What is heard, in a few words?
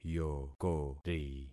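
"yokori", concatenated syllables; Affixing experiment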